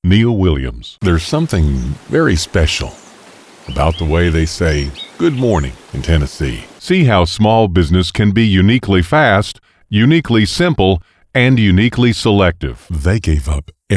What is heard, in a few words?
Commercial